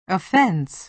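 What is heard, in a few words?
"offense", American English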